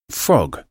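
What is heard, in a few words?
"frog", English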